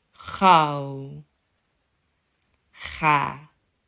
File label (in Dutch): "gouw" "ga", vergelijkende woorden